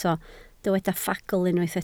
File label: "ffagl", Welsh